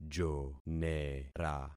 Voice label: "jonera", concat syllables; Affixing experiment